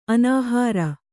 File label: "ಅನುಹಾರ", Kannada